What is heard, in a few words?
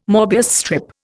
"Möbius strip", Indian